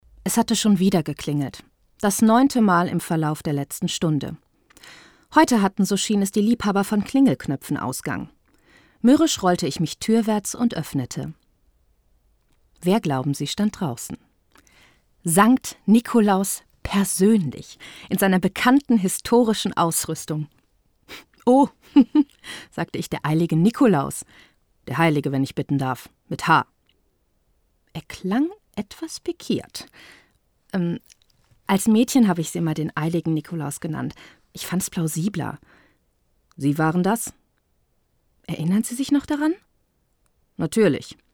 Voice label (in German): Hoerspiel